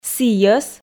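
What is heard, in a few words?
"сійӧс", Komi, female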